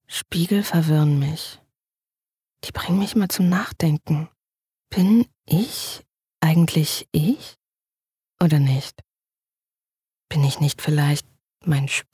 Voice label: Innerer Monolog; LOFT VOICES

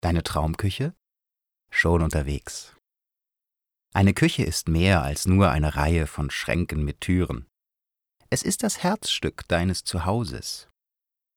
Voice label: imagefilm

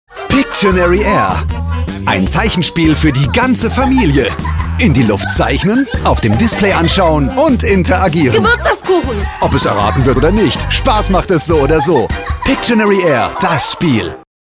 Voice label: werbung; Pictionary Air

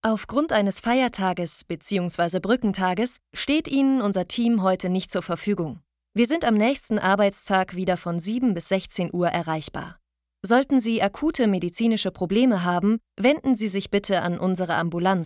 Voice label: Telefonansage; myOfficeSupport

